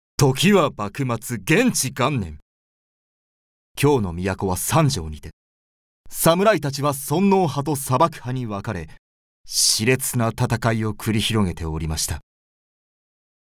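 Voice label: narration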